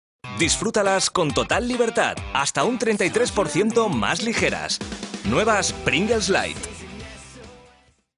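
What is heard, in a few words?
Spanish commercial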